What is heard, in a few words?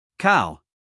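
"cow", American English, male; everyone-can-use-english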